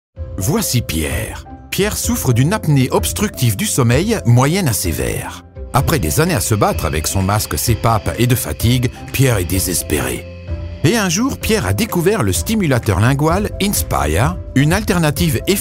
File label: Corporate; INSPIRE